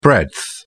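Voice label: "breadth", British English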